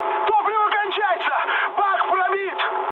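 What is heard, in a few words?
tank damaged, mood high, Russian; War Thunder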